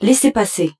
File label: French; Secupass